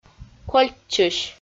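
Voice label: "кольччысь", Komi, female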